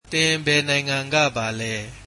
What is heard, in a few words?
Where are you from, burmese